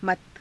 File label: "mata", Hindi